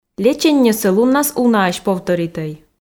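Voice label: "леченньӧсӧ луннас унаысь повторитӧй", Komi, female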